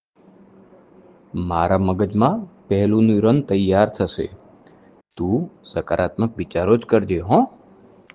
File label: test gujarati audio